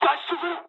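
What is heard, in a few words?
bailout mood high, speech japanese; War Thunder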